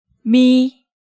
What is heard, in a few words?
"Mi", Hangeul sounds; DigitalSoju